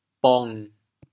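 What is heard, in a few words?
"bong7", Teochew, chaoyang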